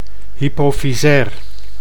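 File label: "hypofysair", Dutch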